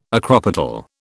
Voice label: "acropetal", British